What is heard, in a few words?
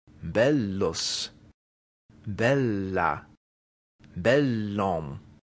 "bellus", Latin